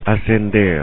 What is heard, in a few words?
"ascender", Spanish; infinitive; verbs